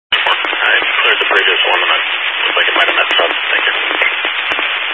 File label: Highway Patrol, scanner; DPS